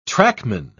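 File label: "trackman", British English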